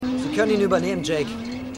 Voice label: Stimmproben Polizist; Bluff City Law

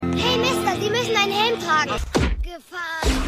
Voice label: Junge; Happy Gilmore

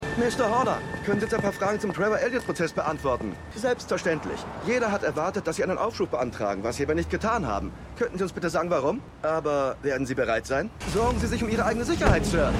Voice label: Reporter; The Lincoln Lawyer

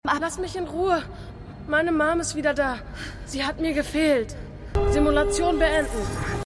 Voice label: Junge; Supergirl

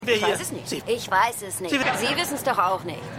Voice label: Frau, Stimmproben; Seinfeld

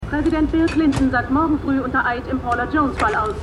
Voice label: Nachrichtensprecherin; AmericanCrimeStory

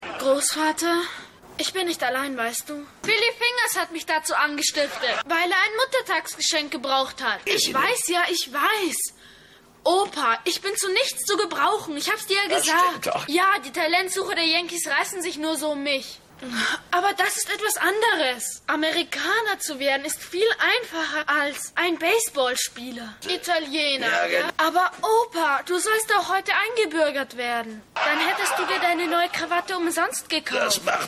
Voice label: Tony Jugendlicher

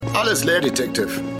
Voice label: Polizist, Stimmproben

